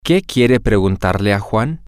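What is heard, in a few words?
what do you want to ask juan, spanish, male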